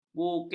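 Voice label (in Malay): "bukit", Malay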